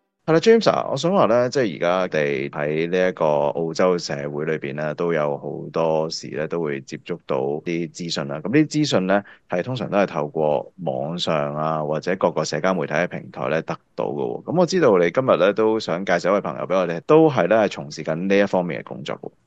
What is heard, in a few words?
cantonese; 【澳洲招職】社福機構社交媒體宣傳專員; SBS Chinese